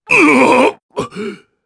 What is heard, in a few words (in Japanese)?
Damage, jp; Ricardo; kingsraid; voices heroes